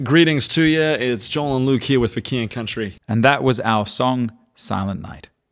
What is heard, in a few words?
song backsell